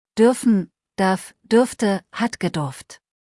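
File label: "dürfen", deutsch; verben kurzliste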